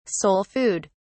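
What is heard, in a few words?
"soul food", British English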